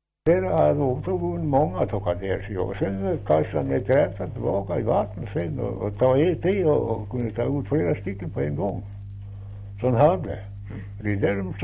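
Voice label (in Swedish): Kopparberg Västmanland, dialekter; Folkminnen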